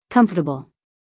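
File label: "comfortable", American English, female; everyone-can-use-english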